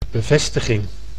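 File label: "bevestiging", Dutch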